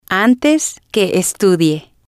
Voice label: antes que estudie, Spanish

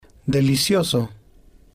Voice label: "delicioso", spanish

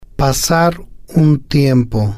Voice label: "pasar un tiempo", Spanish

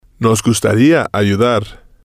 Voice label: nos gustaría ayudar, Spanish

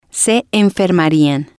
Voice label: se enfermarían, Spanish

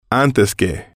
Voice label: antes que, Spanish

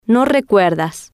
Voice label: no recuerdas, Spanish

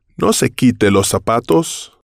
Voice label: no se quite los zapatos, Spanish

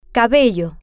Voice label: "cabello", Spanish

